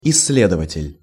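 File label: "issledovatel", Russian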